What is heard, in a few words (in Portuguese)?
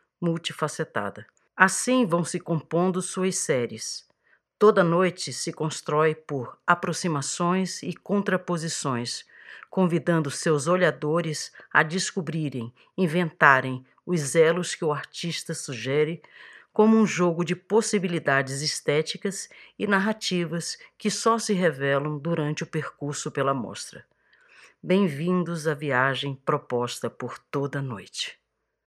texto para o audioguia